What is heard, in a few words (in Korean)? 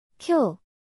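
"쿄", Korean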